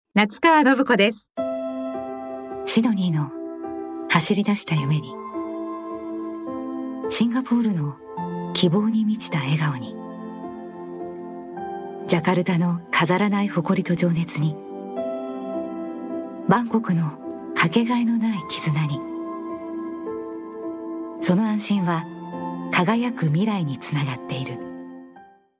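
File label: female tv commercial, English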